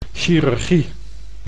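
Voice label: "chirurgie", Dutch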